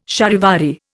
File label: "charivari", Indian